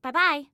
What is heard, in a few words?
Bye, Female; Female 02